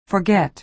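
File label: "forget", American English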